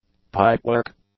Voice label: "pipework", English; nouns

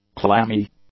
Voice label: "clammy", English; adjectives

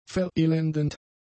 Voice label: "verelendend", German; adjectives

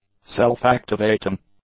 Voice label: "self-activating", English; adjectives

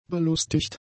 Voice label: "belustigt", German; adjectives

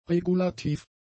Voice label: "regulativ", German; adjectives

